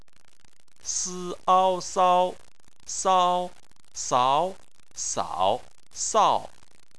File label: "sao", chinese